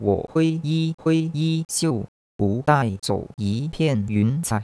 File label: mandarin; ekho